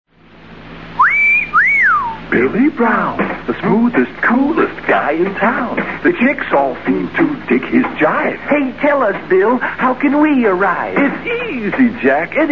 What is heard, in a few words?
Vintage Commercials; Pepsodent